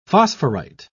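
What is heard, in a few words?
"phosphorite", British English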